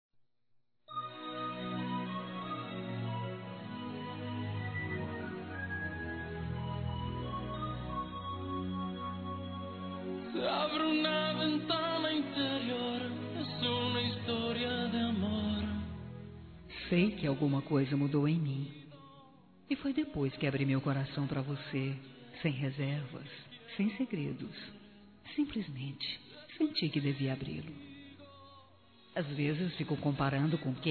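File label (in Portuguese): penso em vc toda hora, feminino; Telemensagens; Românticas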